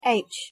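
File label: "h", English; pronunciation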